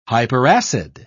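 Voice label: "hyperacid", British English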